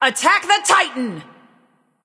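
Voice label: attack, male; smite; bellona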